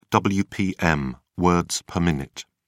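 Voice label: "wpm", British English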